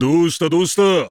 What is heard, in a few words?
JP; SSBU; Wolf